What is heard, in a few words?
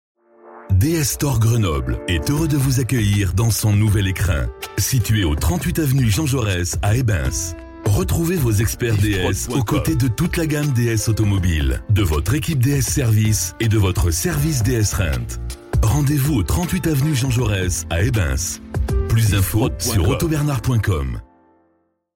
Spot; Ds Store Grenoble